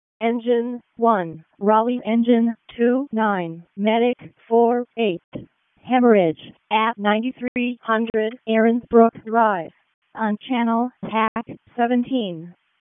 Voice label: EMS ALERT; wake; p25